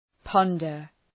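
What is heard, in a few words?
"ponder", English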